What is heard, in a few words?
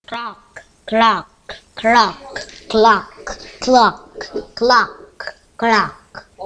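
"clock", spoken word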